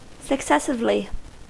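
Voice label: "successively", US English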